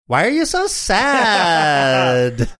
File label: funny